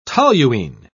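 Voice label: "toluene", British English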